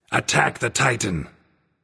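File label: vox attack male; smite; hou yi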